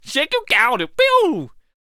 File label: Quick Draw Attack Vocals